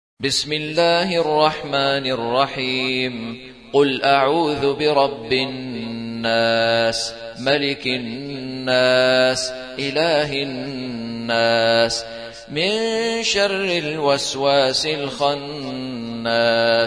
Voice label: ترتیل سوره ناس

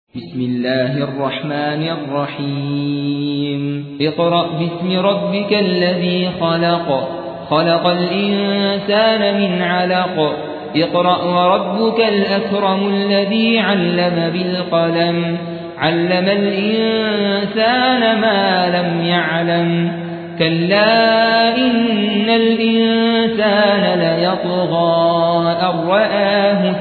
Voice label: ترتیل سوره علق

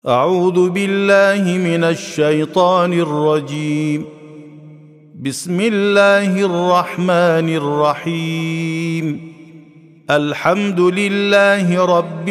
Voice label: ترتیل سوره مبارکه فاتحة

